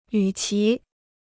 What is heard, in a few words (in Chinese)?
"与其", Chinese